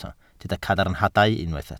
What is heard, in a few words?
"cadarnhadau", Welsh